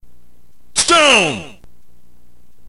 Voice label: narrator